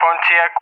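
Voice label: "Pontiac", English, RUSSIA; Allied Flight; CallSign; DCS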